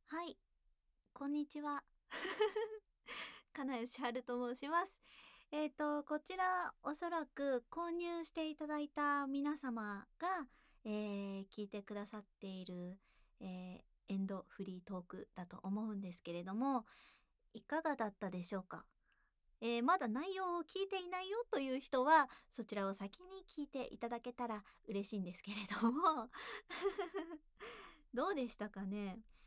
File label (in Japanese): フリートーク